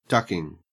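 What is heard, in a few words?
"ducking", Australian English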